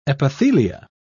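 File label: "epithelia", British English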